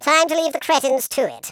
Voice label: "kamikaze", Patronising

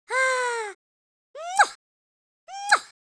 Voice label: happy

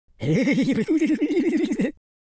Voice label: scared, Super Villain speech

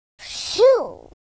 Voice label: relief; speech

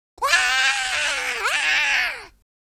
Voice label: scared; Confucious

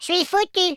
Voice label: oh dear, French; speech

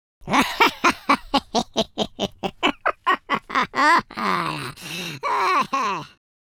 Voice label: happy, Footy Fan speech

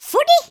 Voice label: "grenade", Geordie; speech

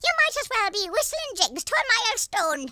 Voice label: "stupid", Irish; speech

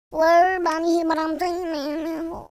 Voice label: "pray", Gangsta; speech

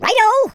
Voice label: yes sir, Welsh; speech

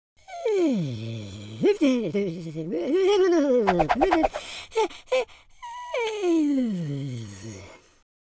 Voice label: idle, super hero speech

